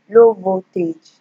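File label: "low voltage", Nigerian Pidgin, female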